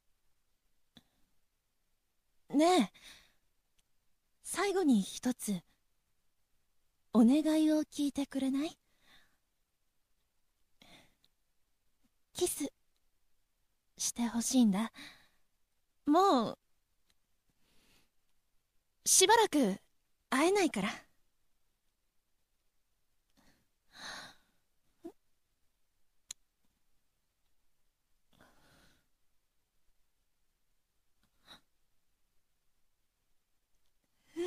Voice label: 女性ー恋愛

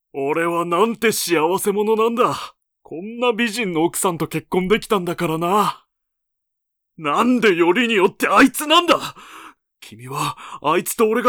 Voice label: 中年

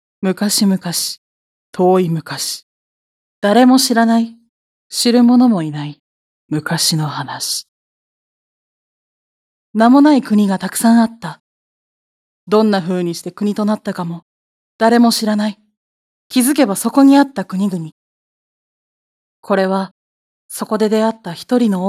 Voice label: 映画予告

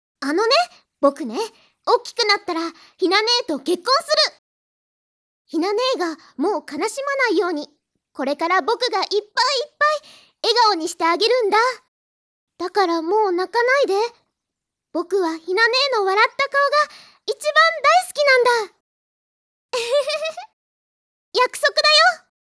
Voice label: 幼い男の子

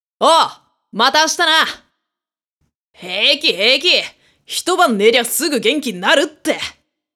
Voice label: 少年

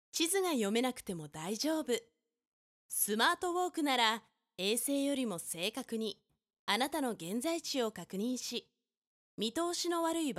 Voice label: ナレーション

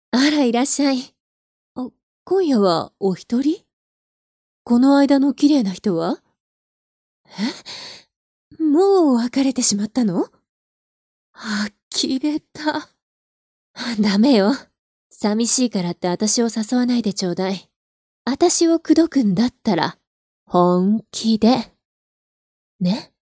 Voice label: お姉さん

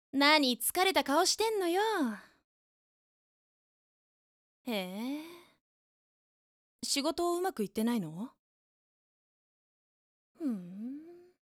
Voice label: 女性

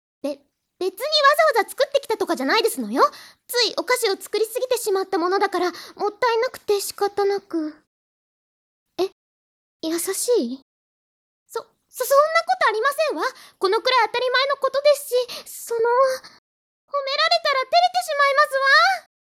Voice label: ツンデレお嬢様

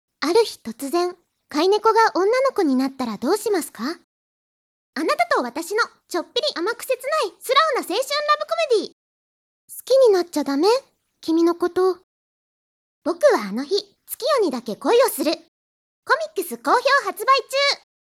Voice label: コミックCM

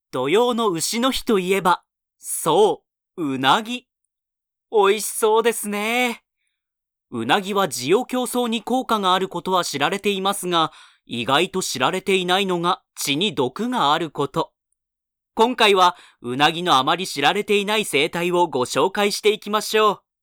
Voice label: ナレーション

